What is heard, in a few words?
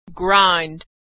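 "grind", American English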